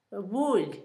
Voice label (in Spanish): bola, Zapoteco, Asunción Ocotlan; Objetos; INALI